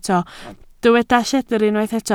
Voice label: "lledr", Welsh

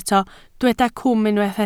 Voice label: "cwm", Welsh

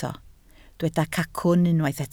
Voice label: "cacwn", Welsh

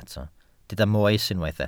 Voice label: "moes" Welsh

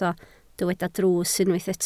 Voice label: "drws", Welsh

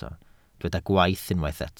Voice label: "gwaith" Welsh

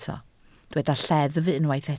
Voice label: "lleddf" Welsh

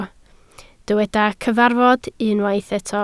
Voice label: "cyfarfod", Welsh

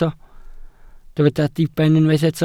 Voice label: "diben", Welsh

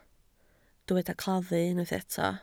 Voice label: "claddu" Welsh

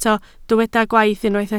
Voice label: "gwaith", Welsh

